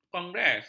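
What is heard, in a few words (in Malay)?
"kongres", Malay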